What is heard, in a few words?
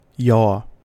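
"yaw", US English; pronunciations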